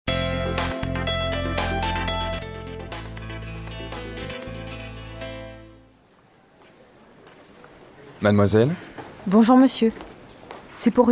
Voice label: French